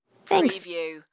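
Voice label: Woman Thanks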